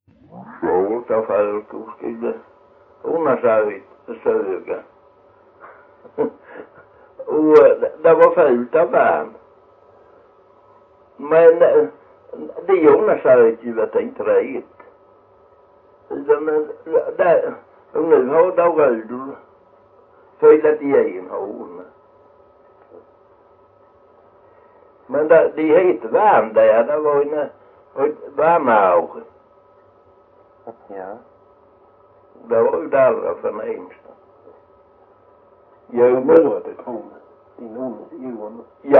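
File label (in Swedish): Jämshög Blekinge, Svenska sagor dialekter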